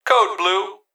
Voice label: Emergency Human Male Voice Hospital Call Code Blue; emergency effects